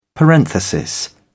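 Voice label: "parenthesis", British English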